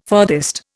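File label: "furthest", Indian English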